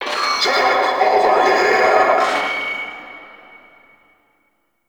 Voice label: Vocal Loudspeaker; Warframe; Duviri; Town Escape